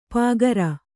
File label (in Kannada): "ಪಾಗರ", Kannada